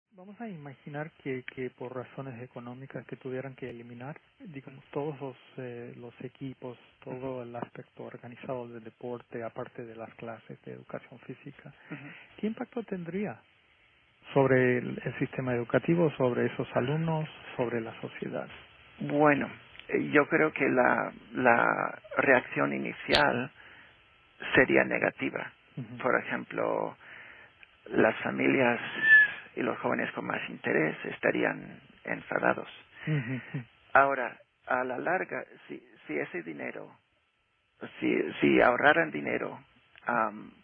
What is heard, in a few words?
spanish speaking superior